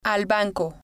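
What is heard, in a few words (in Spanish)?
al Banco, spanish, female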